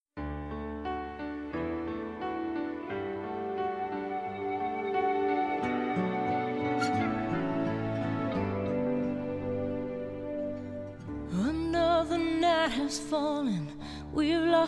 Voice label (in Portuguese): NATAL ROMANTICO FEM, Telemensagem de Natal